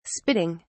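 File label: "spitting", British English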